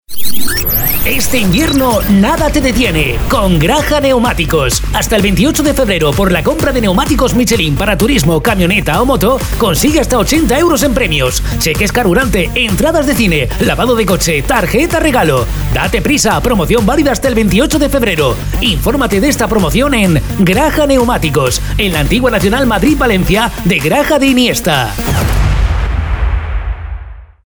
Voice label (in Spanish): HOMBRE